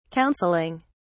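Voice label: "counseling", British English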